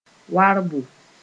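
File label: "warbo", Mooré; hoeing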